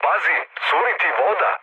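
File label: Serbian damaged water mood med; War Thunder; speech srb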